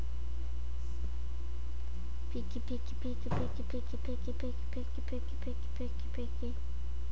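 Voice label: "pick", spoken word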